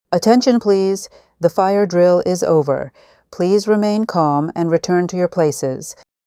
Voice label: emergency announcement fire drill complete; emergency voice announcements

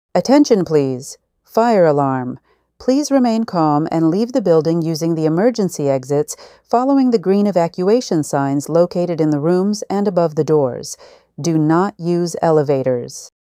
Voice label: emergency announcement fire alarm evacuation; emergency voice announcements

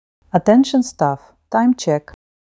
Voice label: emergency announcement coded immediate; emergency voice announcements

